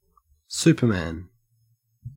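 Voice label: "superman", Australian English; pronunciations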